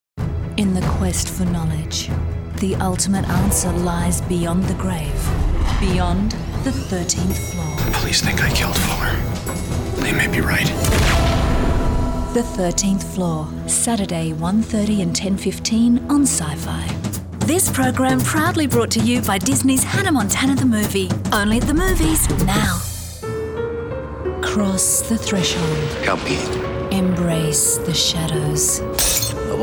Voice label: promos